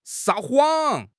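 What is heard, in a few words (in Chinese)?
"撒谎", Chinese; HSK6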